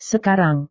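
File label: "sekarang", Indonesian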